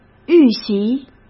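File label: "预习", Mandarin Chinese; hsk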